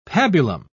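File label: "pabulum", British English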